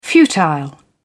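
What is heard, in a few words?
"futile", British English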